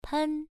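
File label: "pen", Mandarin Chinese syllable, first tone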